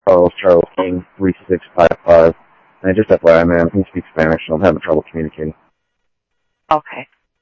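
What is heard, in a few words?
Sheriff East County, scanner audio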